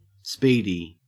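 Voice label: "speedy", Australian English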